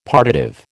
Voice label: "partitive", American